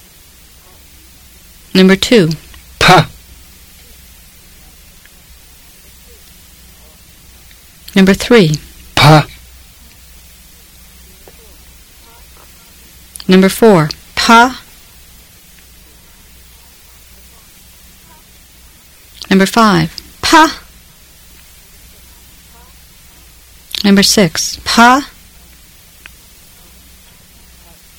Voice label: Fluent Tibetan drill